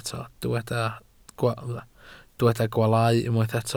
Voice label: "gwaalau", welsh